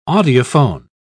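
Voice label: "audiophone", British English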